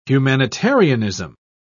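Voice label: "humanitarianism", English, uk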